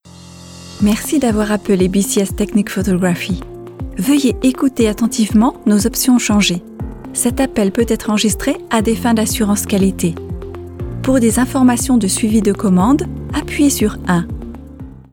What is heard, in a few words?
french ivr